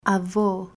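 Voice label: "Avo", Portuguese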